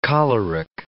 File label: "choleric", English